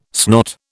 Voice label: "snot", British

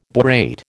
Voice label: "borate", American

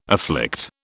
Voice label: "afflict", British

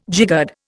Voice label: "jiggered", Indian

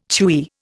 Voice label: "chewy", Indian

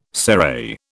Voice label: "serai", British

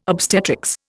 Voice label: "obstetrics", Indian

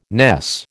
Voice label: "ness", American

